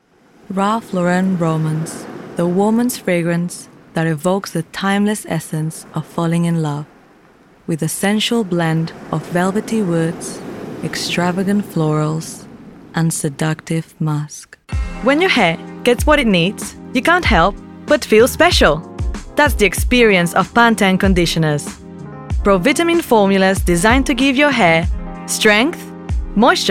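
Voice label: Spanish English F Ad; accent of spain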